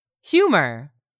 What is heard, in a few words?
"humor", English; 3000 words